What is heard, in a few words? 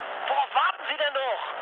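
German attack position mood med, speech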